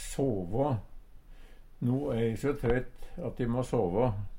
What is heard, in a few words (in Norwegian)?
sava, Numedalsmål; No e e so trøytt at e må såvå.